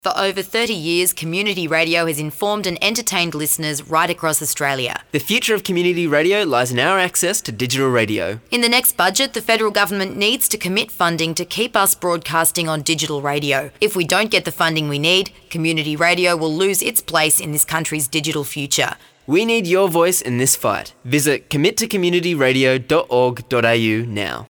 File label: DIGITAL RADIO